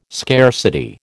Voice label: "scarcity", American